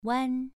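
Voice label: "wan" Mandarin Chinese, second tone, pronunciation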